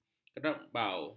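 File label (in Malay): "kerbau", Malay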